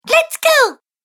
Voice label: Cartoon Girl Lets Go; Cartoon Voice Sound Effects

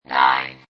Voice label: Robot Voice "0"

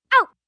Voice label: Pain Ow Short, Ow, Ouch, 1 child, quick; VoiceBox

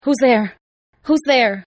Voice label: Female There English, Hispanic Female Saying; "Who'S There?" In English; Attitude Sound Effects

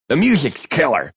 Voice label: The Musics Killer, Radio, Male; VoiceBox

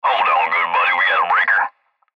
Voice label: Cb Trucker Radio; Radio Voice; Blastwave

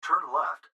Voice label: Gps Voice, Male; Turn Left; Speaker Adult Male; Recorded Voice

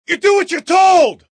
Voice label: Do What You're Told, Yelling, Male

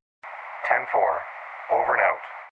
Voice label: Police Ten4, Police dispatch radio Voice, male; Radio Dispatch Sound Effects

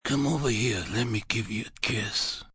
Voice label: Give You A Kiss, Mafia, gangster; Talking

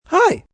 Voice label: "Hi" Cracking, Greetings, male

